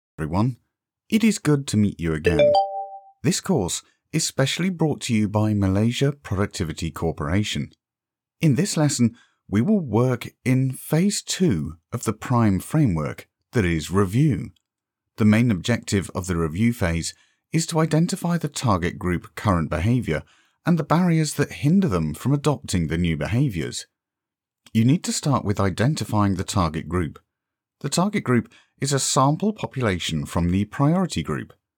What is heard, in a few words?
English (UK), Male